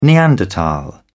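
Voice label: "neanderthal", British English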